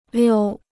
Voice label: "溜", Chinese

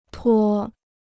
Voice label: "脱", Chinese

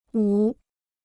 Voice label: "五", Chinese

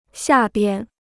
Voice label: "下边", Chinese

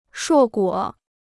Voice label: "硕果", Chinese

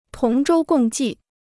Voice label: "同舟共济", Chinese

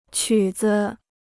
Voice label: "曲子", Chinese

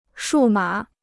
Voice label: "数码", Chinese

